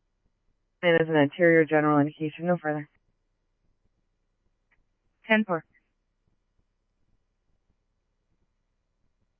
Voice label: scanner, Scottsdale Police

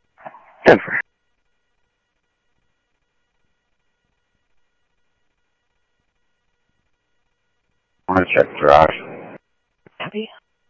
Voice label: South Scottsdale Sheriff, scanner audio

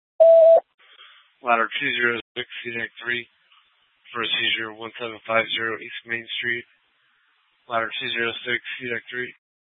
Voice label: FD Dpts VHF 156.240 Patch

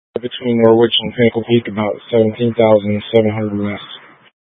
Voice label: Mutual Aid-Intersystem Interop B, scanner

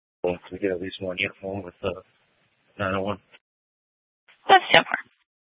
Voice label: Sheriff, scanner P25

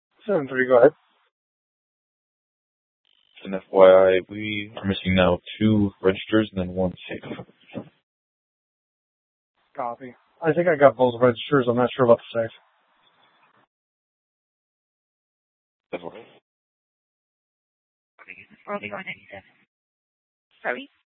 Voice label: Dispatch, scanner

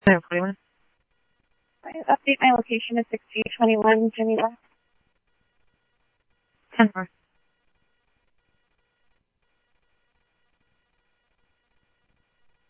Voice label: Tempe Police, scanner; SimulcastF-Tempe

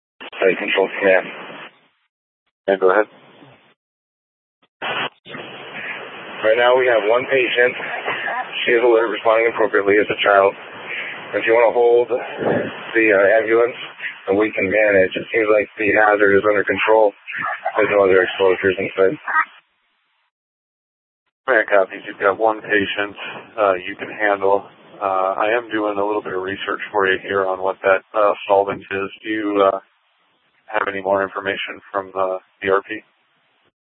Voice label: FD Departments VHF 154.800 Patch, scanner; Mesa - Gilbert - AJ

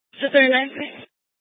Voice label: Phx PD Dispatch, scanner; C- Chandler

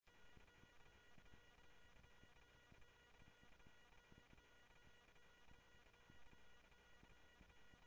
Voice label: Central Sheriff Central, scanner audio

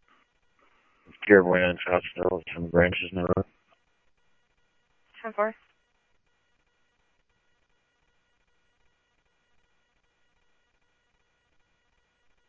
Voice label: Scottsdale Police, scanner audio

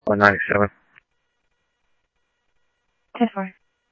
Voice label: Mesa Simulcast, MESA PD, scanner audio; MesaPoliceDep

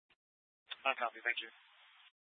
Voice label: Fire Departments, scanner

